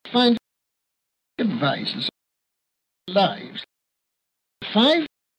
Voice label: bbc, accents sbe; hg wells; bbc 1931; russian; price

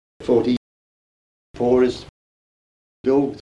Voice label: kent thought, kent accent; stoke

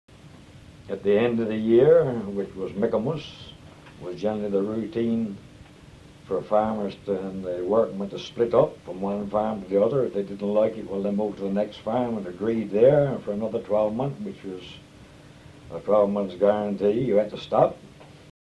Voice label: kent extract, kent examples accents; denton

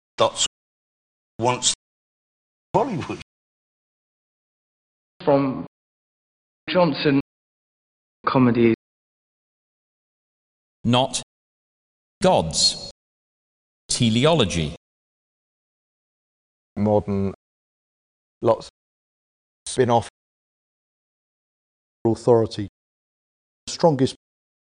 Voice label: sb lot, home counties sbe vowel formants

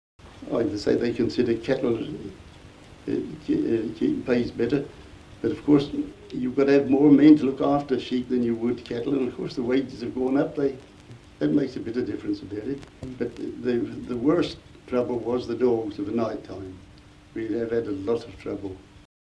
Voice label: kent, accents; stoke

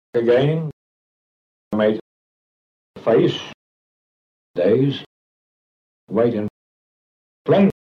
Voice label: kent face, accents; staple

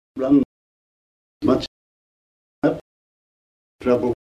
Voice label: kent strut, kent accent; stoke

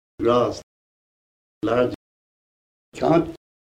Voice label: kent bath, kent accent in the 19th century bath; stoke

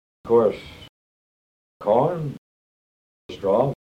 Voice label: kent thought, accents; denton

